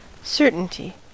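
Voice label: "certainty", US English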